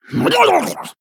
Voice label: Female Murloc Attack

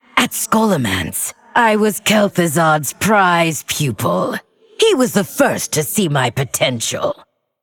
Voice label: Female Undead; Lich Tamsin

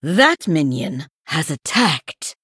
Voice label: Female San'layn Error Minion Attacked

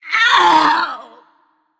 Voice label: Female Undead Death

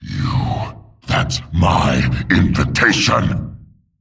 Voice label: Male Demon; Malchezaar; Final Malchezaar Jaraxxus

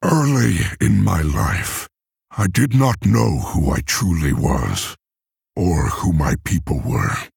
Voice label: Male Orc; Thrall

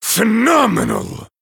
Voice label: Male Night Elf Wow

